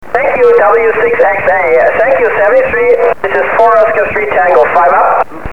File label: 28 ssb